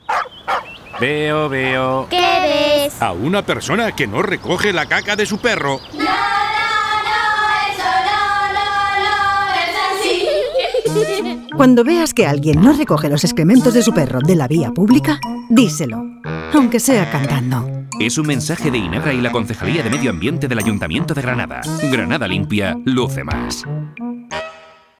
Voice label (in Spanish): cuña campaña publicidad; veo veo excrementos